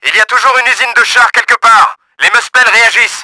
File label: FACTORY, french; Battle Engine Aquila; XBOX